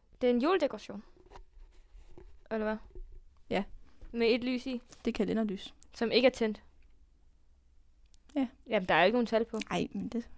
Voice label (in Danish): NYB dialekt aar